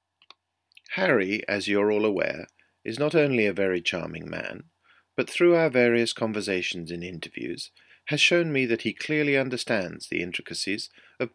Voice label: toast speech